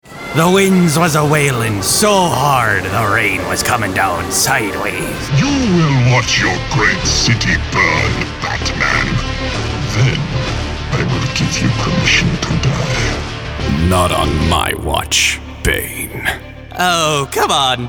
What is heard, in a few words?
Character, Male voices